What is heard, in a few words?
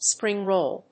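"spring roll", English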